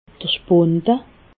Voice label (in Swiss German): "spund", alsace